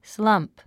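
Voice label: "slump", English word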